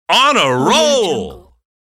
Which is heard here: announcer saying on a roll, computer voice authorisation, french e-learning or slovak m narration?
announcer saying on a roll